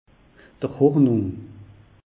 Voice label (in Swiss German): "hornung", alsace; meteo